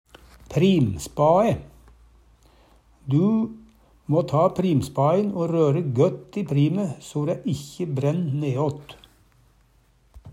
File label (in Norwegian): "primspae", Numedalsmål; Du fær/må ta primspain o røre gøtt i prime so ræ ikkje brænn ne'åt.